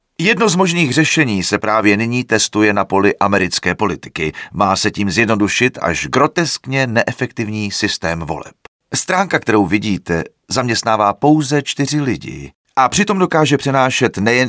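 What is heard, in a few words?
Male Czech drama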